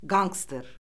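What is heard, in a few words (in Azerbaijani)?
"qanqster", Azerbaijani; Orfoqrafiya Lüğəti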